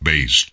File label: "bass", voice